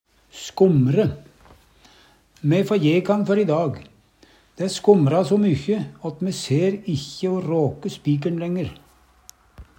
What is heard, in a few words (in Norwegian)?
"skomre", Numedalsmål